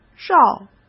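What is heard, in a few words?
"绕", Mandarin Chinese; hsk; chinese-flashcards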